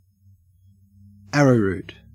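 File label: "arrowroot" English, Australian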